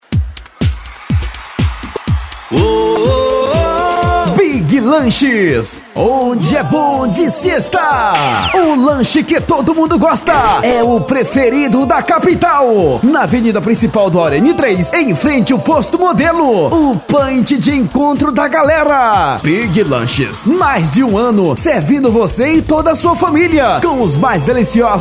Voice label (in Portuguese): spot gospel; big lanche